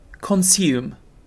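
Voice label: "consume", British English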